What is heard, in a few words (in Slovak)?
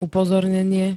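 "upozornenie", Slovak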